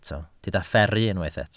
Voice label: "fferru", Welsh

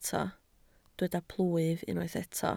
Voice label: "plwyf", Welsh

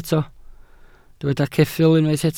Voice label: "ceffyl", Welsh

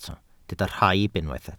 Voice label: "rhaib", welsh

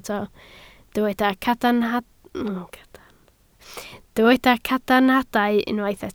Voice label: "cadarnhadau", Welsh

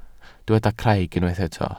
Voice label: "craig", Welsh

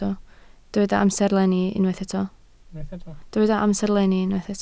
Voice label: "amserlenni", Welsh

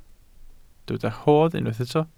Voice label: "rhodd", welsh

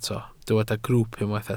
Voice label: "grwwp", Welsh

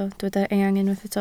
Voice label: "eang", Welsh

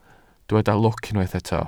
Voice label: "lwc", Welsh

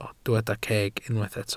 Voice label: "ceg", Welsh

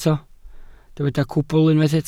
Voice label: "cwbl", welsh

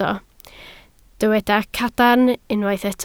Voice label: "cadarn", Welsh

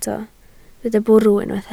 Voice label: "bwrw", Welsh

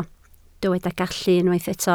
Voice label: "gallu", Welsh

